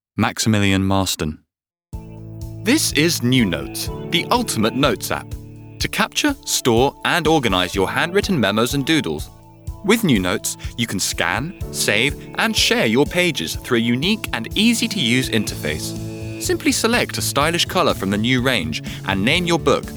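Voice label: Male corporate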